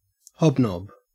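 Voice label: "hobnob", Australian English; pronunciations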